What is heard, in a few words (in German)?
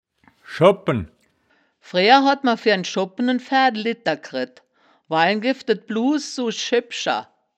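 "schoopen", wittlicher woerterbuch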